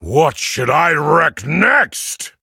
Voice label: level up, en; Cookie Run